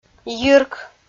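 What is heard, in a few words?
"йирк" Komi, female; audio dict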